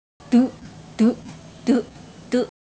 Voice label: Semai Sound